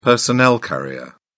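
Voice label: "personnel carrier", British English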